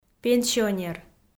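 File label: "пенсионер", Komi, female; audio dict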